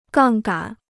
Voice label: "杠杆", Chinese